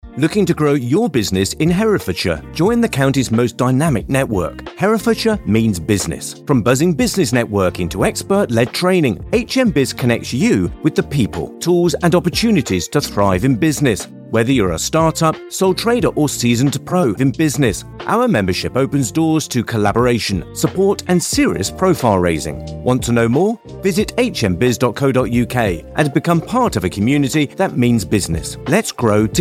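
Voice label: radio commercial b2b, Engaging Friendly British Voiceover Artist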